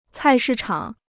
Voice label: "菜市场", Chinese

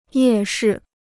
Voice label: "夜市", Chinese

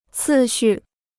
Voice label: "次序", Chinese

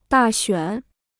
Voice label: "大选", Chinese

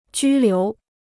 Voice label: "拘留", Chinese

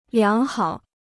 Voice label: "良好", Chinese

